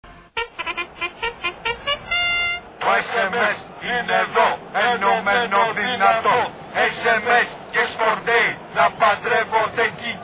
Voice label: sms spot